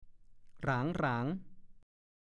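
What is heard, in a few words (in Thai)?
"หราง ๆ" ภาษาโคราช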